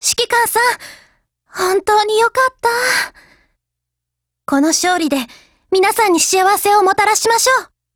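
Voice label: WIN, Japanese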